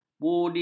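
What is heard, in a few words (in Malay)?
"budi", Malay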